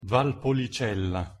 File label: pronunciation it valpolicella